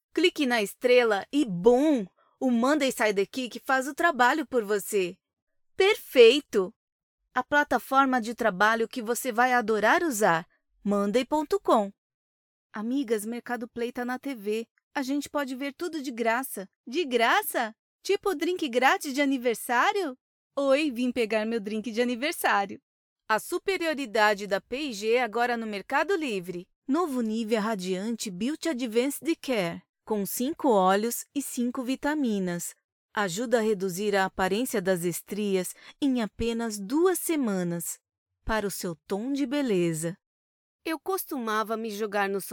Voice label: Commercial Voice Over; PT-BR